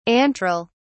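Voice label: "antral", British English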